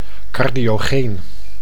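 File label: "cardiogeen", Dutch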